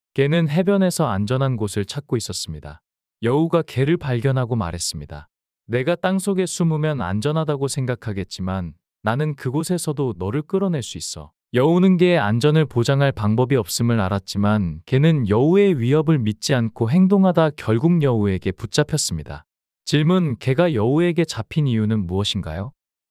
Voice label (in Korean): 이야기 남자; 게와 여우